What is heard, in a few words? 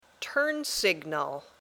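"turn signal", English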